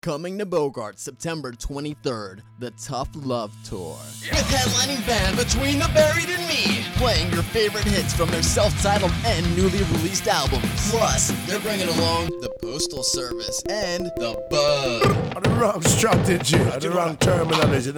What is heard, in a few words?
concert promo